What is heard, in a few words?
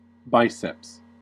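"biceps", American English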